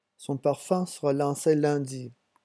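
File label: "son parfum", French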